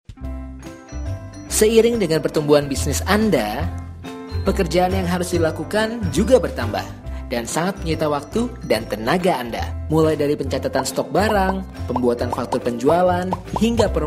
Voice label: Bahasa Indonesia; Software Akunting

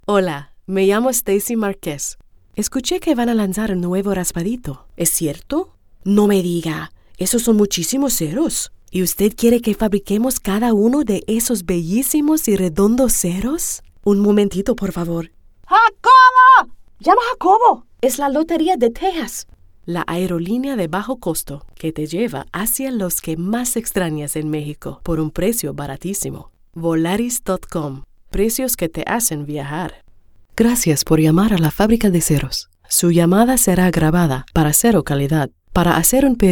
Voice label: US English Spanish Samples

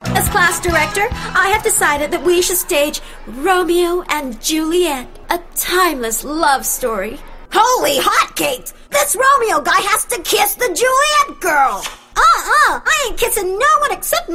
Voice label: Asian English assorted character voices

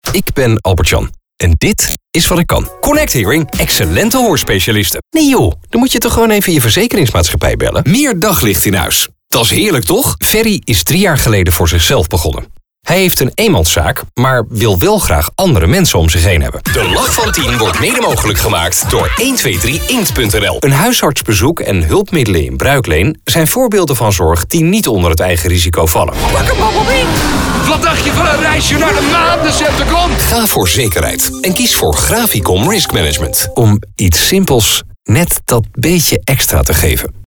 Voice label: Dutch Male Voice

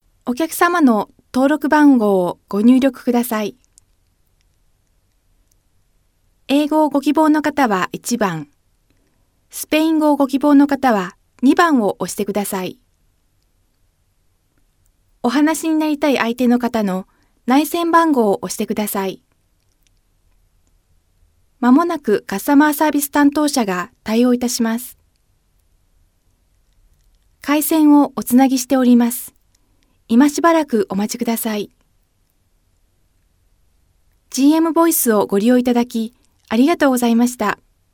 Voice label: Japanese IVR

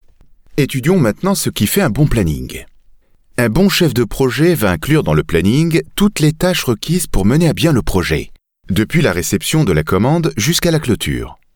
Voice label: French Male eLearning

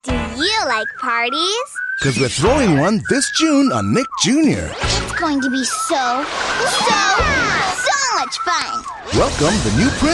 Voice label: Asian English highlights; NJR

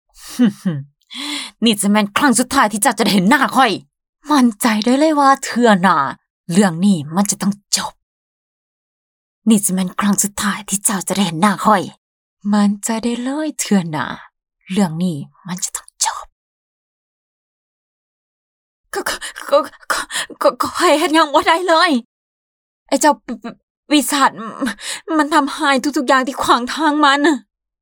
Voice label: Laos Female

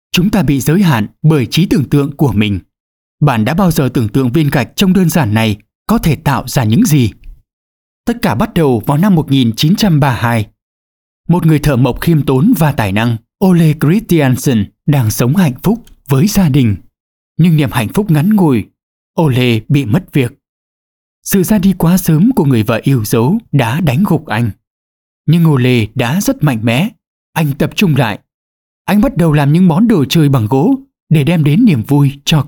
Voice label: Vietnamese North Male; Lego

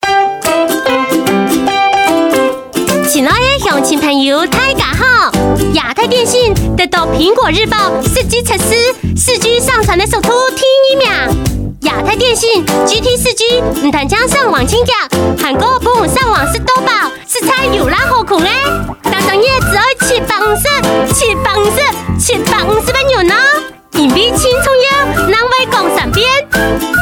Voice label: Publicity Hakka Taiwan, Female; APT

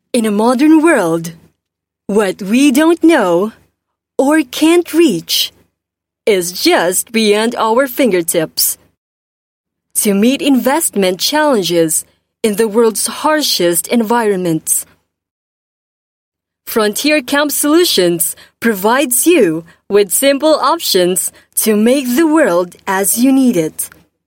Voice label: Filipino English; Mordern World

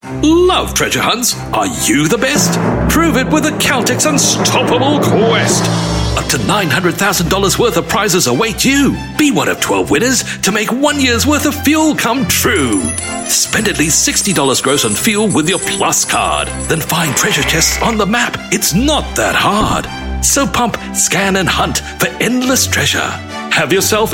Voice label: Asian English ANNOUNCER; CALTEX

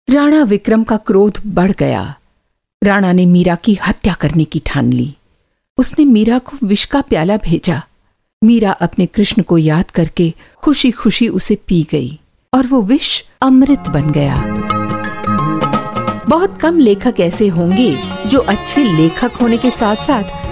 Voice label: Hindi, Female; India

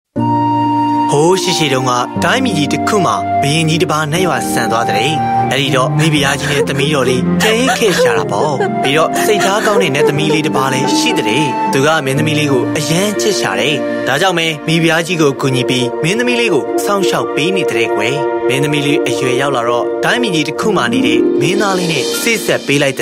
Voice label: Myanmarese, Burmese Female

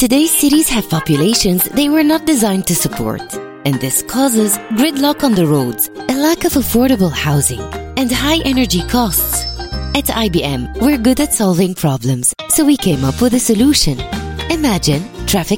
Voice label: Arabic Female English; IBM Smart City

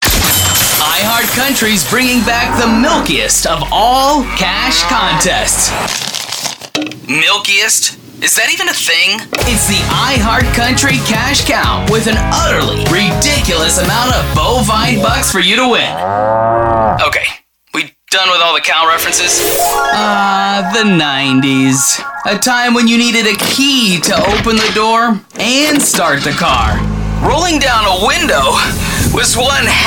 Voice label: US English Radio Imaging

